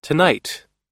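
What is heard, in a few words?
"yoga", US English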